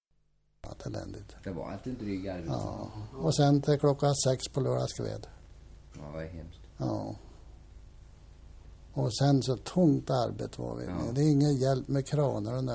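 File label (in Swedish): Munkfors Värmland, dialekter; Svenska sagor; Folkminnen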